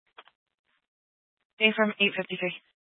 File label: Fire Department Dispatch; Grand Junction